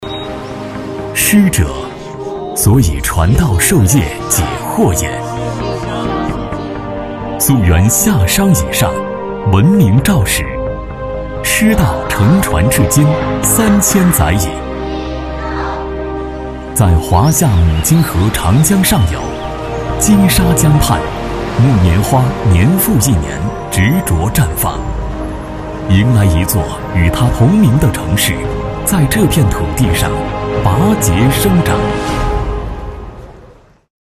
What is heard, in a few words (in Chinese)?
男 走心 教师节